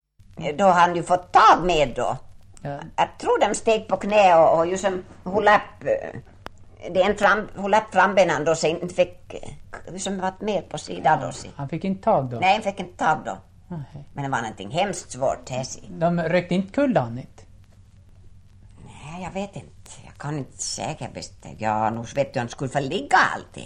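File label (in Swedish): Ål Dalarna, Svenska sagor, dialekter; Folkminnen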